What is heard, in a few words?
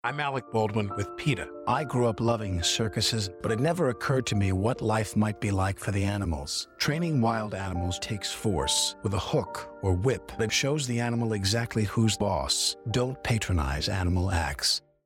anti circus radio psa; Alec Baldwin